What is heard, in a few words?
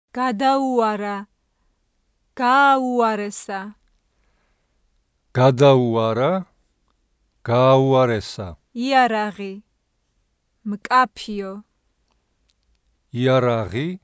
vowel sequence, kartuli; vowel sounds